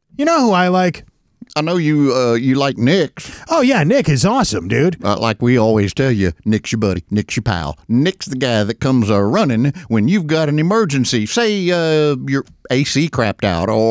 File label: RADIO AD; nicks plumbing radio ads